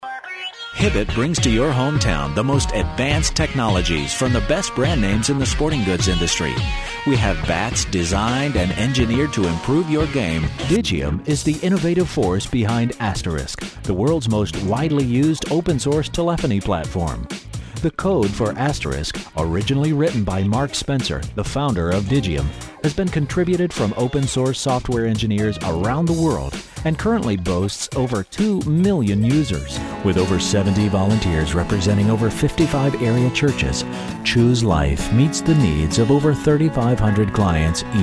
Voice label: Corp Non Broadcast, Long Form Narrations, documentaries, educational projects